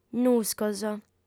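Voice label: "nuscos" Sardinian, female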